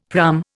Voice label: "praam", Indian; Dictionary